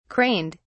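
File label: "craned", British English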